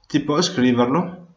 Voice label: write, Venetian